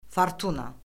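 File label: "fortuna", Azerbaijani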